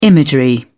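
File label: "imagery", British English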